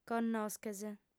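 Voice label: "connosches", female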